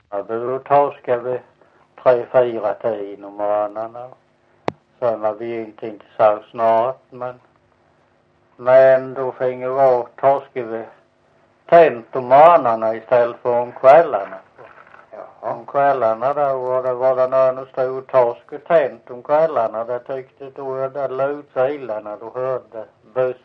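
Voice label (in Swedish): Visseltofta Skåne, svenska sagor dialekter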